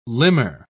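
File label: "limmer", British English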